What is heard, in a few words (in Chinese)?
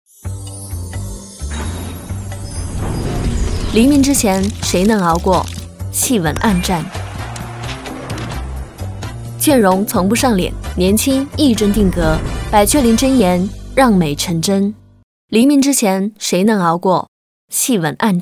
女 广告 个性 时尚; 百雀羚帧颜